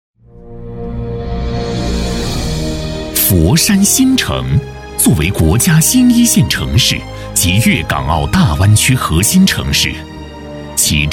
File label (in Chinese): 男 恢弘大气 专题; 新城建设